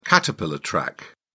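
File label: "caterpillar track", British English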